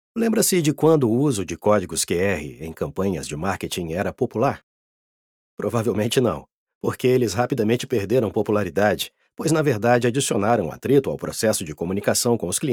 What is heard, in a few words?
e-learning sample